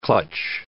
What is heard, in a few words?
"clutch", English